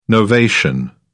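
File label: "novation", British English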